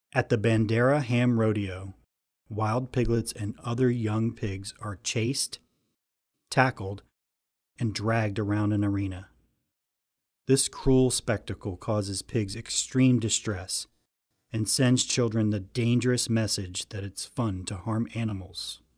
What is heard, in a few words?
radio ad; bandera ham rodeo